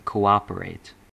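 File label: "cooperate", US English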